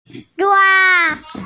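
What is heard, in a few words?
"zhua1", Mandarin Chinese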